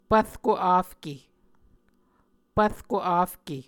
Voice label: "pvrko afke", muscogee